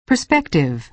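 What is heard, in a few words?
"perspective", American English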